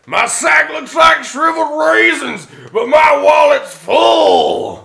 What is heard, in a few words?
Win, Tough Guy Voice; blackjack